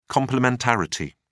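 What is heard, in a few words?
"complementarity", British English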